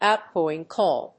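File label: "out-going call", English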